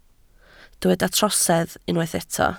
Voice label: "trosedd", Welsh